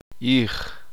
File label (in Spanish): uspanteko espalda